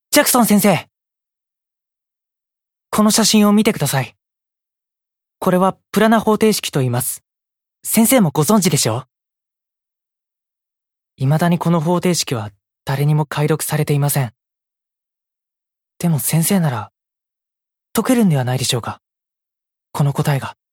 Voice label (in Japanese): ナチュラル